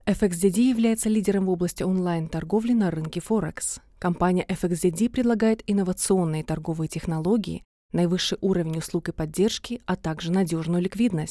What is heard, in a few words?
Russian company